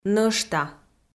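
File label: "нӧшта", Komi, female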